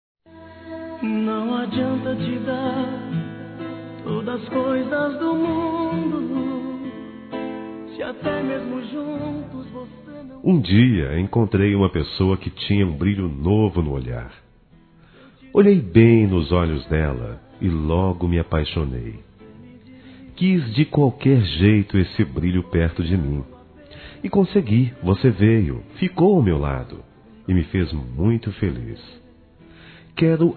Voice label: EX NAMORADA M; Aniversários; ANIVERSARIO romantico; masc

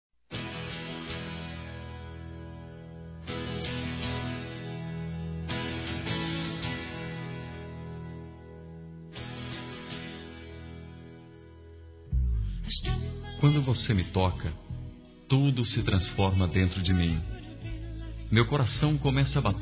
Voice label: Picante Masculino; Telemensagens

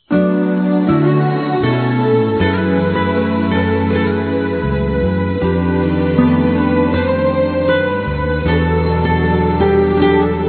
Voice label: Uma Vida Melhor Masculino; Telemensagens; Otimismo

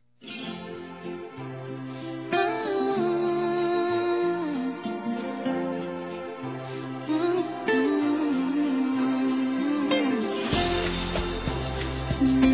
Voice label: Aniversário Antecipado Feminino; Telemensagens 2017; Aniversários; Aniversário atrasado e antecipado

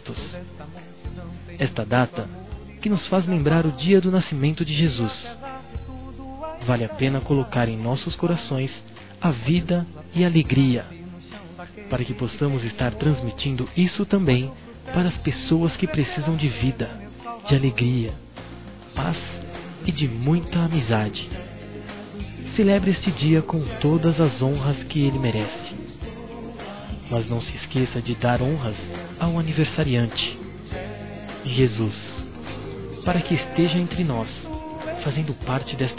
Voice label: NATAL EVANGELICO, masc; Telemensagens; Natal e Ano novo

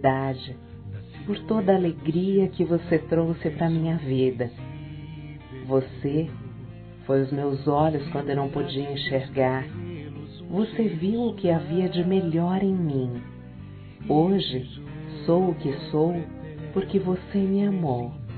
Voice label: Por Amor Feminino, Telemensagens

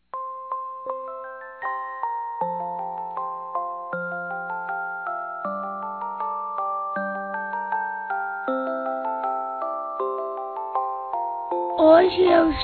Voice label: Aniversário Mãe Infantil Masculino; Telemensagens 2017; Aniversários

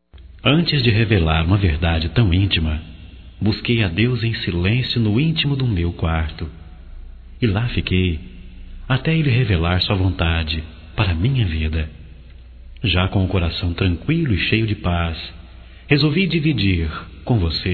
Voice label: CONQUISTA EVANGELICA, Telemensagens, Românticas, masc

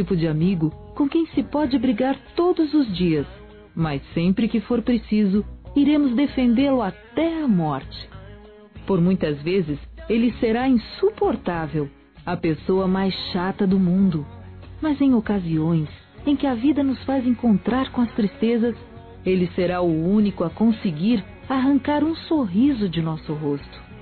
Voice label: IRMÃO F; Aniversários; Aniversários diversos; fem

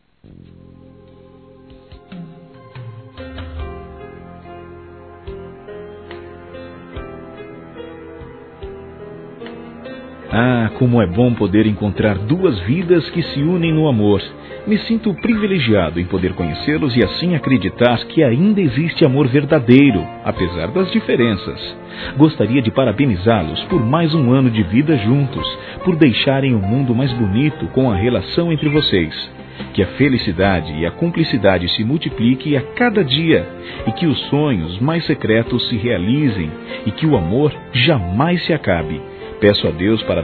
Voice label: Aniversário Casamento Geral Masculino; Telemensagens 2017; Aniversários

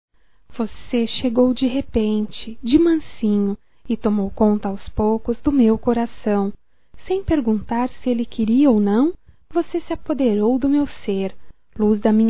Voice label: OBRIG. POR VOCE EXITIR, fem, Voz; Telemensagens 2017

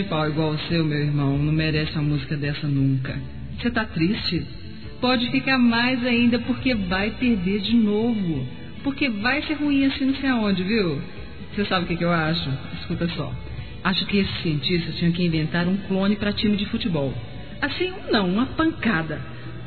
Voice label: Evang. Parabéns Pela Vitória f, Telemensagens, Gospel; fem; Telemensagens 2017

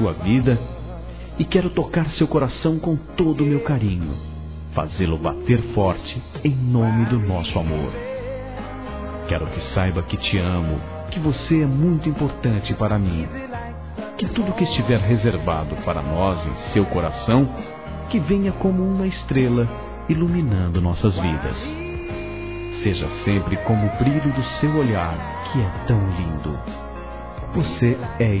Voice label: ANIV. ROMANTICO M

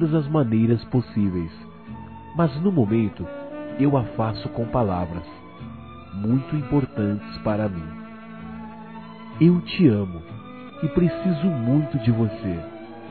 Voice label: Aniversário Esposa Masculino

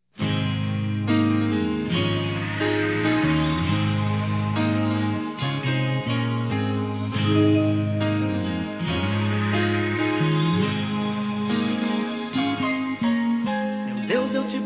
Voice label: Ano Novo Esposo Feminino; Telemensagens; Natal e Ano novo

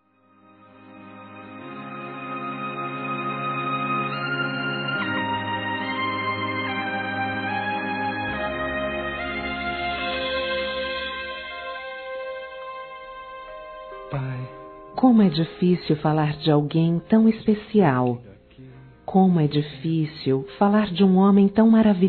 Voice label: Neutro, fem; Telemensagens 2017

